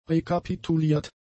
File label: "rekapituliert", German; adjectives